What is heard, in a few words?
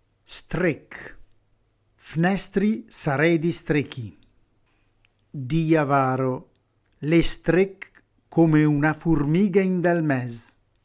"strech", léngua arsâ; stretto; chiuso; serrato (fnèstri sarêdi stréchi, bulòun bel stréch); fig.: persona poco incline alle spese; reggiane; dialetto